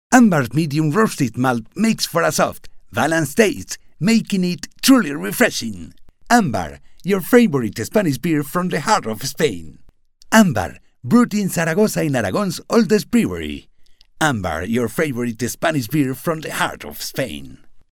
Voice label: male spanish castilian english with spanish accent commercial